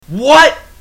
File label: male "what"